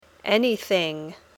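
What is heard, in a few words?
"anything", English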